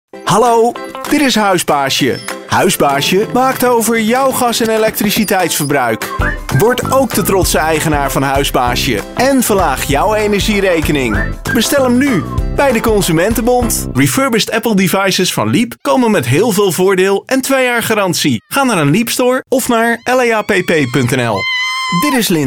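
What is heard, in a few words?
NL M commercial, Dutch, male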